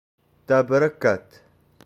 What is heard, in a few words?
"Tabarakat", Touareg; Tamaris